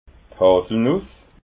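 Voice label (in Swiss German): "haselnuss", Alsatian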